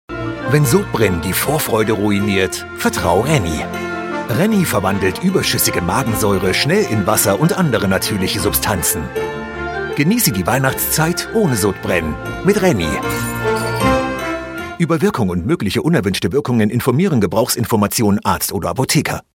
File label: german voice commercial, Dynamic German Male Voiceover